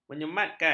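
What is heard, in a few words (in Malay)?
"menyematkan", Malay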